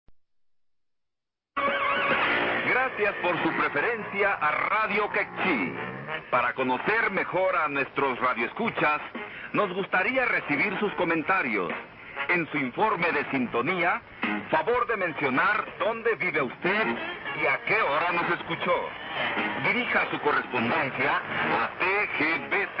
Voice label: Kekchi Fray Bartolome de Las Casas 4845 kHz, Guatemala; Radio Kekchi (LVEDLC)